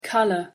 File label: "colour", English